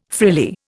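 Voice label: "frilly", Indian English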